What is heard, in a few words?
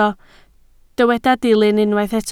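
"dilyn" Welsh, spoken word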